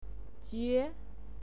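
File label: "jue2", Mandarin Chinese syllable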